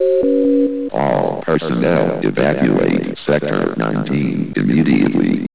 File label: announcement; sven; sector19